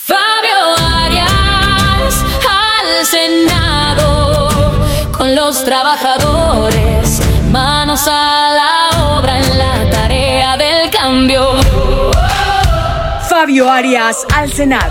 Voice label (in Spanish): voz mujer; 20 seg Genérico